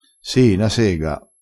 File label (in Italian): "si na sega", crespinese